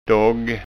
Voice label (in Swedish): "dogg", skanska